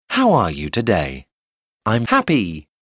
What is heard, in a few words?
happy dialogue